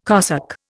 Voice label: "cosec", Indian

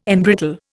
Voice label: "embrittle", Indian

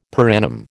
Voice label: "per annum", American

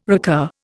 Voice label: "recur", Indian

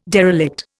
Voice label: "derelict", Indian

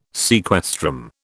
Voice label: "sequestrum", British

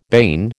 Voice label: "bane", American

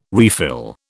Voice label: "refill", British

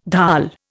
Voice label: "dhal", Indian

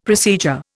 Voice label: "procedure", Indian; Dictionary

